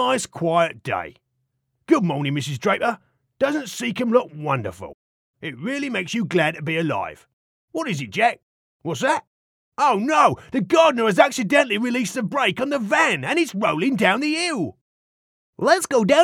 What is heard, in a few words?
Animation